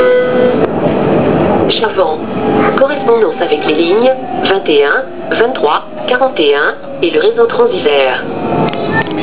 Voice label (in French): La synthèse vocale des Citadis annonce les correspondances; Chavant : Les correspondances annoncées sont celles de Flandrin-Valmy...; Quelques erreurs; sontram